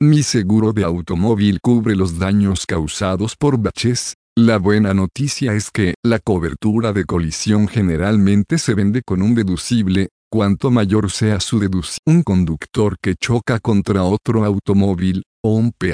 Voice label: potholes spanish